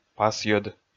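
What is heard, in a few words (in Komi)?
"пасйӧд", Komi, male; audio dict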